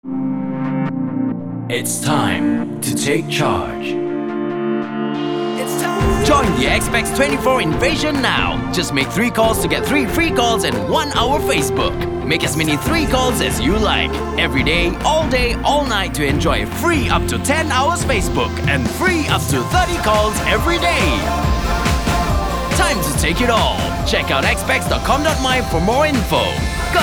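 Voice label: Male VO English Announcer Energetic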